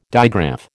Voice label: "digraph", American